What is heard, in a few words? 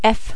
"f", french